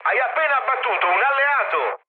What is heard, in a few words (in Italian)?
player killed ally, mood med, speech it; War Thunder